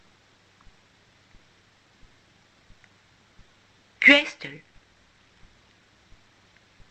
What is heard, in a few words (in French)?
gateaux gwestell, apprenons le breton ensemble